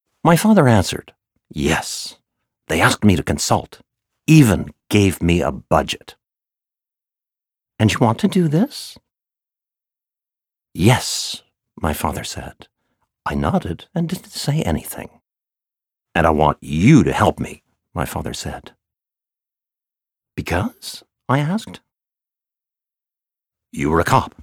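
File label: AudioBook, male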